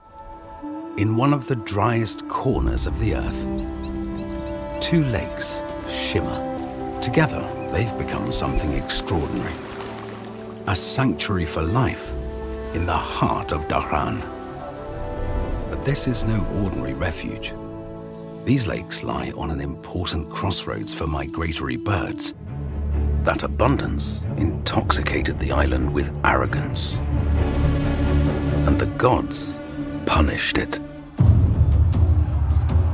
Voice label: english british documentaries